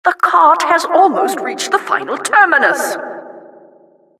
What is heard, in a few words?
announcer cart defender final warning